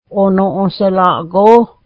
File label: pumpkin, mohawk